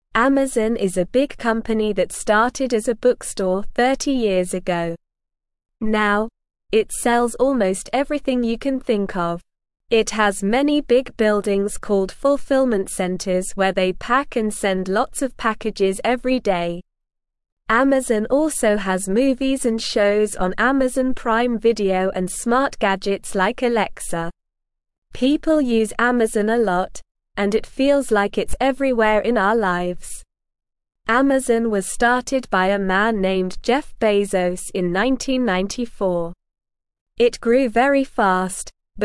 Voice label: English Beginner SLOW Reading; Amazon From Bookstore to Everything Store